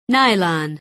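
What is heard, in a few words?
"nylon", English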